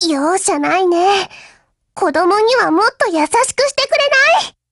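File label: BREAK Japanese, Voice; GF Alarm; FN57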